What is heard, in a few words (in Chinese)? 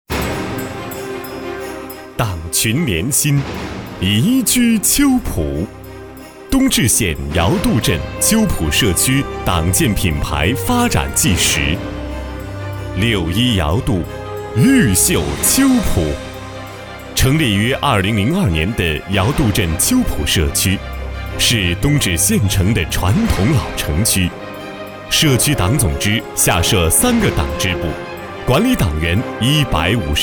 男 专题 党建 大气沉稳